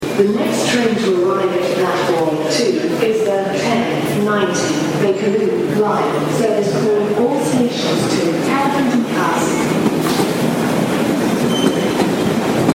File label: Platform 2 to Elephant and Castle, Underground, Queens Park station